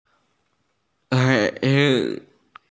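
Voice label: "gei3", Mandarin Chinese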